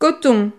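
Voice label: "coton", French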